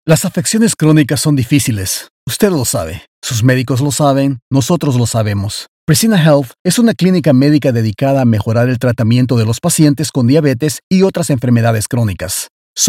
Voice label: spanish latin american medical narration; VO bilingue

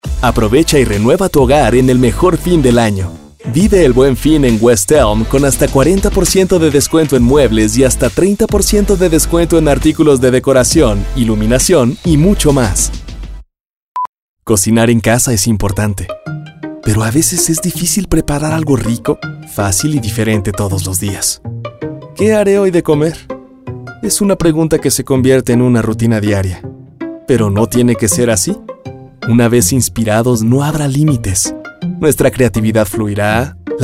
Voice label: spanish mexican

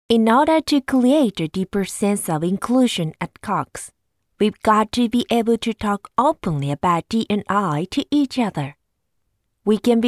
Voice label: English commercial

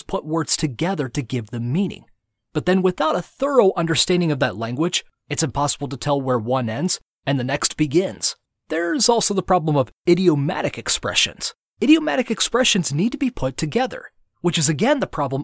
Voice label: English American e-learning

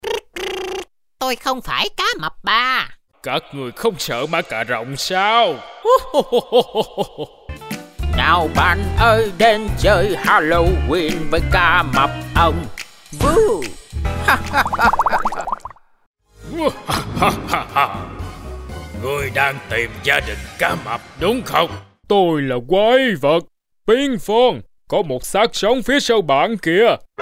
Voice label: Vietnamese animation

